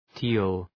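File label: "teal", English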